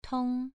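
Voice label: "tong" Mandarin Chinese, second tone, pronunciation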